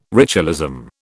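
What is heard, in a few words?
"ritualism", British English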